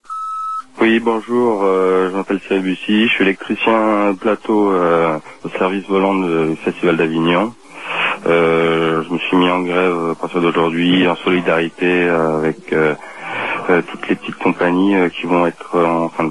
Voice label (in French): Intermittents repondeur; Avignon